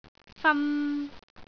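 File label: "fan3", Cantonese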